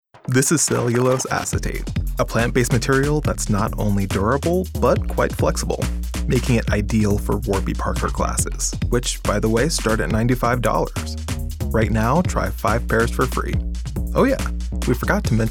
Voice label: Retail Natural Best Friend; voiceover